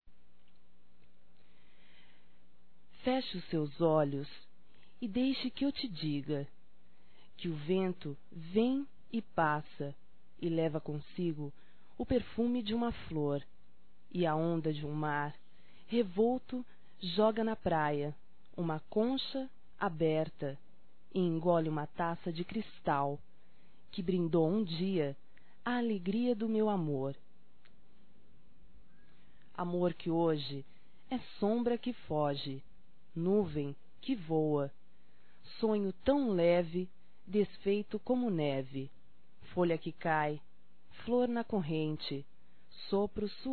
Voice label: Romantica Poesia Feminino; Românticas

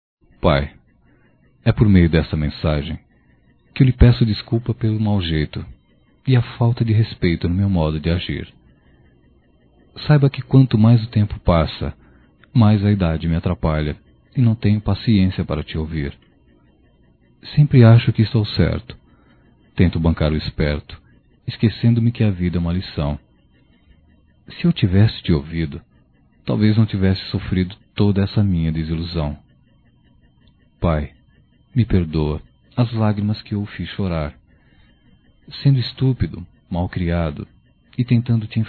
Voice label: Desculpas Pai Masculino, Só Voz; Telemensagens; Telemensagens 2017

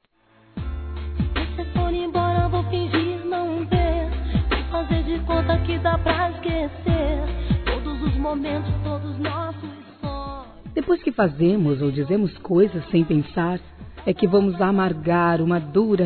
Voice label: RECONCILIAÇÃO F

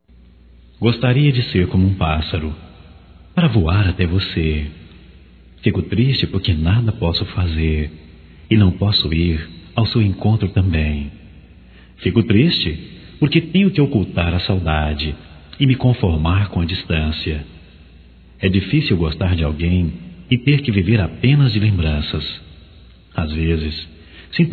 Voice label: ME DEIXA TE AMAR, Telemensagens, Românticas, masc

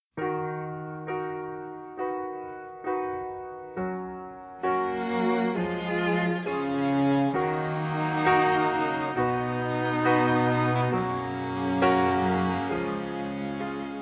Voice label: Filha na barriga para pai voz adulto, Telemensagens, Dia dos Pais; Telemensagens 2017

